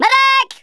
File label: fatality, Drill Sergeant; Worms Armageddon